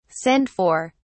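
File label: "send for", English, British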